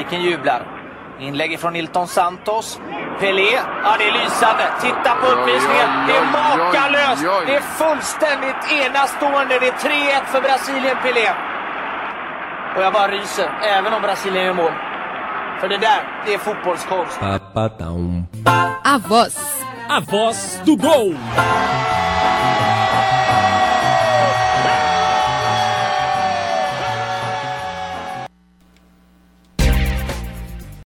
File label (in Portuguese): LOCUTOR SUECO NARRA GOL; GOL DE PELE DA COPA DE 1958